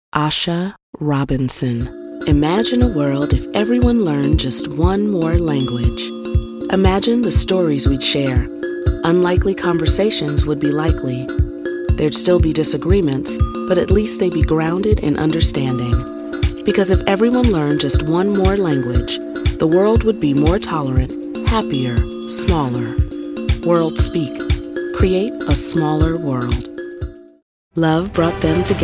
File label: Commercial-Promo